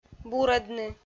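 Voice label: "бурӧдны", Komi-Zyrian, female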